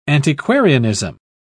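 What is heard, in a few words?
"antiquarianism", British English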